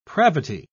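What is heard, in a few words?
"pravity", English, British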